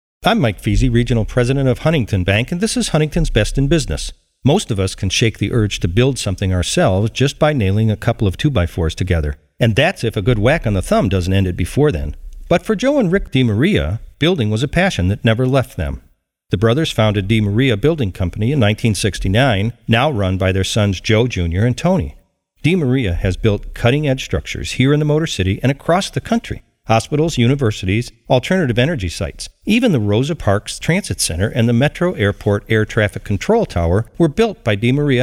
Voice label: radio spot